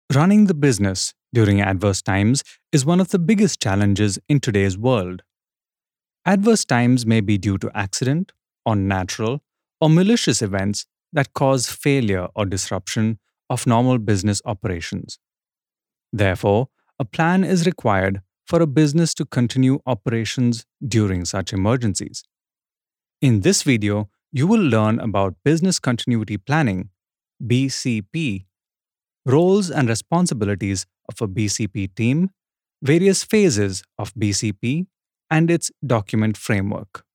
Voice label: elearning; BCP